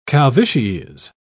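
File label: "calvities", British English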